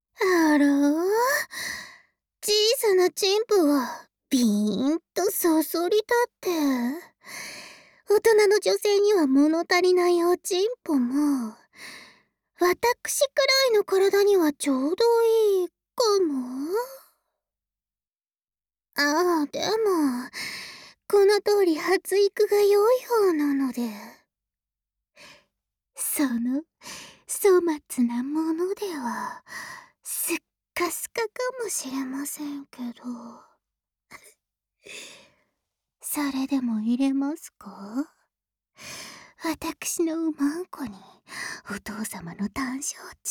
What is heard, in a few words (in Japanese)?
Whisper むすめ